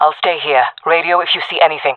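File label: waiting here, female voice; player vo; Counter Strike Model Pack; CS:GO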